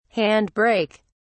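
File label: "hand brake", British English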